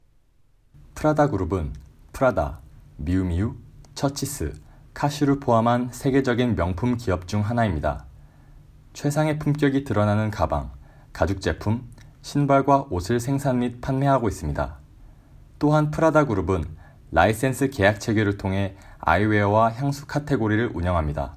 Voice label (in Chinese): 韓語男聲